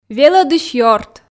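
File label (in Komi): "велӧдысь ёрт", Komi, female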